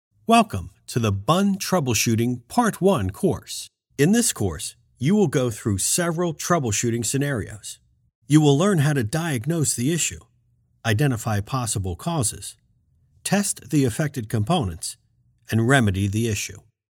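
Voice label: English US male Welcome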